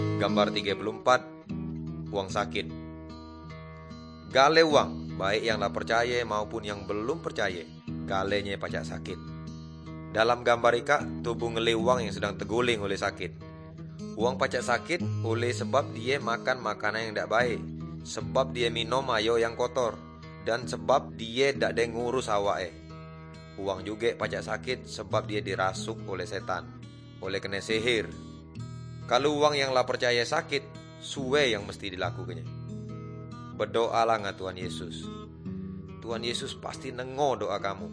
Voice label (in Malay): good news melayu tanjung raja